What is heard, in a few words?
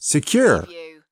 "secure", US male